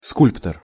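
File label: "skulptor", Russian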